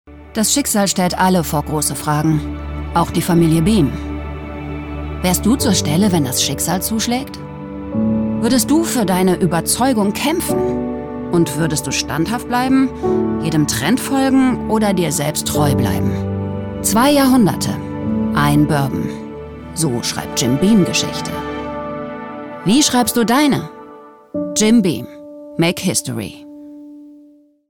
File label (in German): Stimmdemo Werbung; Jim Beam